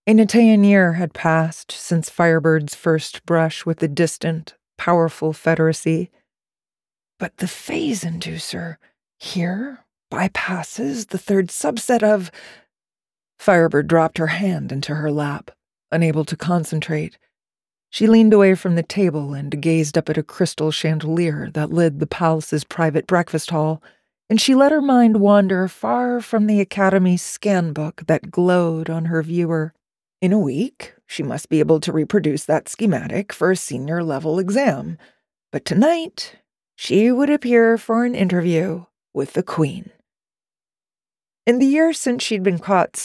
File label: Audiobook; Firebird